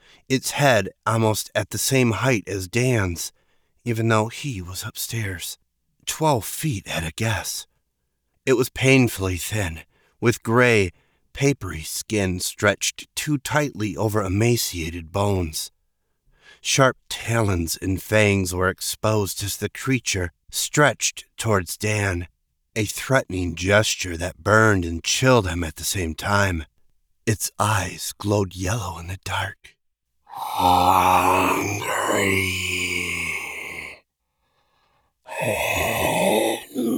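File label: Horror, monster, male female; Let me in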